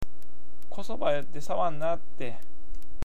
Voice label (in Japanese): "kosobai", awaji ben